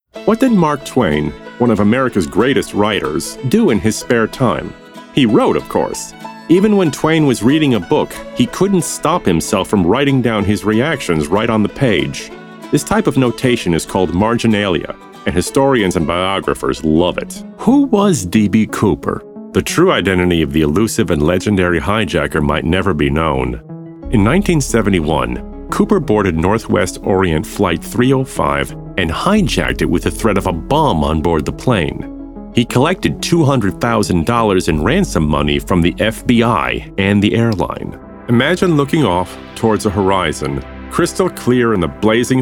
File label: Narrative